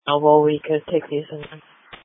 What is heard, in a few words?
Sheriff Dispatch